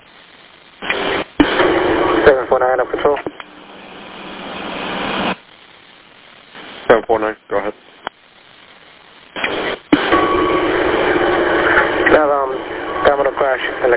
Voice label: Police Radio